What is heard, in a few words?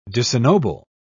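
"disennoble", British English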